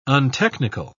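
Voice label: "untechnical", British English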